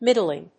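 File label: "middling", English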